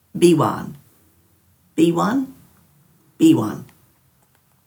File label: "biwan", Dhurga